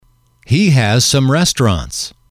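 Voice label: He has some restaurants, male, English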